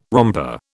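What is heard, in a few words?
"romper", British English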